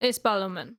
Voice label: female attack, greek